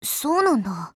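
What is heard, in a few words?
ACCEPT, Japanese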